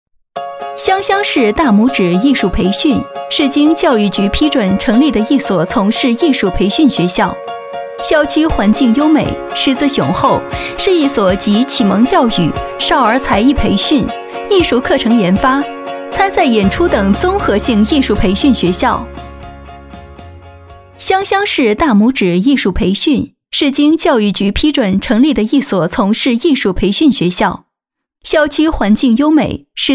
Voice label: 女 培训学校宣传 年轻; 湘乡市大拇指艺术培训; 年轻稳重

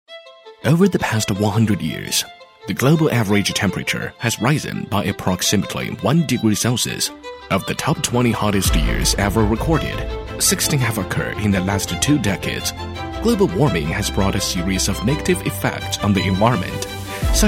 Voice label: 男 英语配音 中年 课程; 中英双语; 年轻时尚

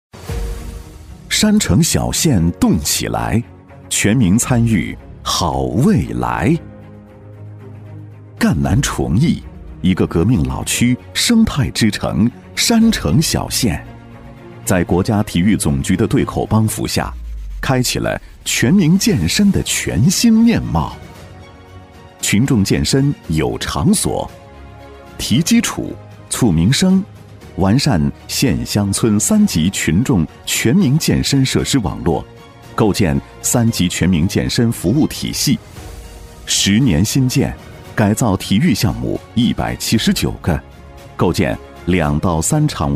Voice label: 男 专题汇报 全民健身工作成效纪录片 大气厚重; 高端质感; 大气浑厚; 崇义县

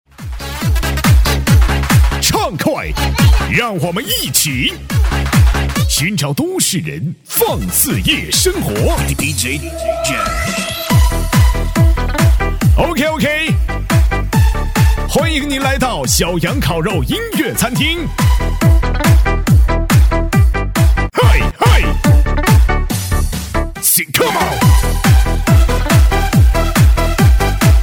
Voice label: 男W3-土嗨商业喊麦样音, 喊麦激情 夸张震撼

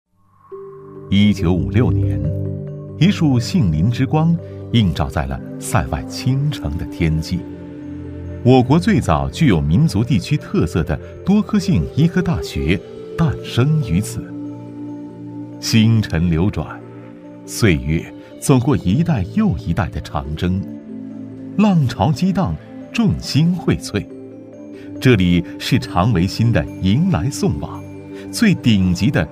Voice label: 男, 大学宣传片, 纪实讲解; 稳重磁性; 纪实记录; 中医学院

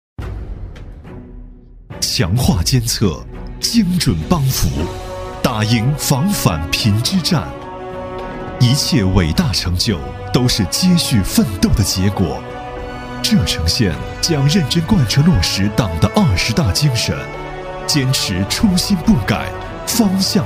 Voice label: 男 政府专题 大气宣传; 柘城县脱贫攻坚汇报

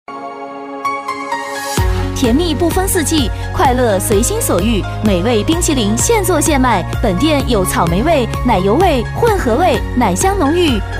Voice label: 活泼女声 夏季饮品促销; 激情力度

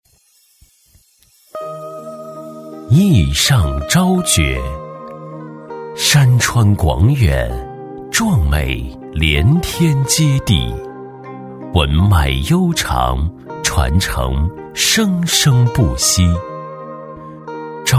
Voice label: 男 纪录片 古风古韵; 硬朗力度 大气浑厚

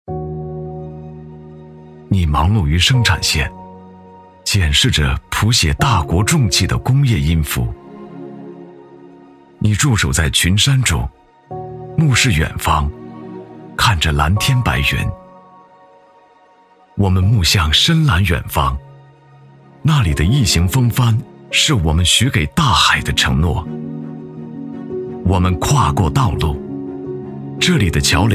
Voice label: 男 走心宣传 走心; 我们未来的路

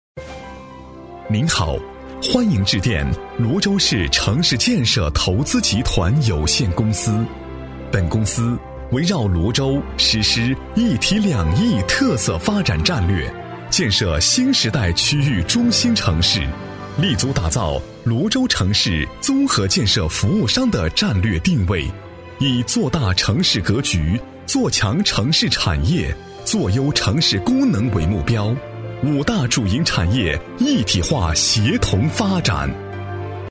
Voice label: 男, 电话彩铃; 大气磁性 高端大气; 男395-电话彩铃

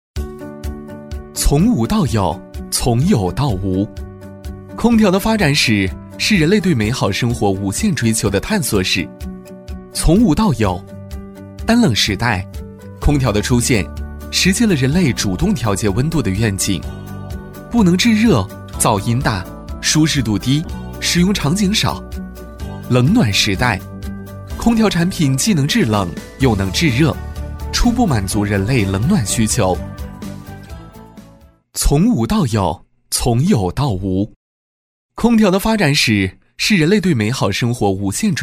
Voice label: 男 MG旁白 年轻 时尚 科技感; 空调发展史; 年轻活力; 科技时尚

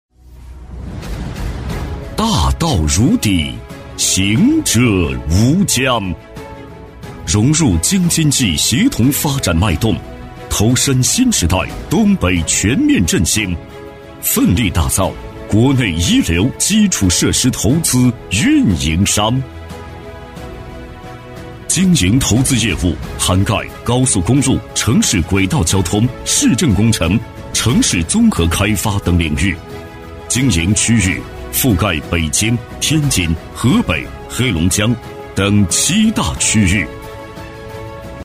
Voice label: 男 企业宣传片 大气激情; 高端厚重; 激情力度; 奋进之光·中铁投资

